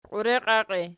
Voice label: "qiureqiaqii", Archi